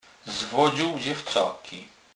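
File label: "zwodzec", Polish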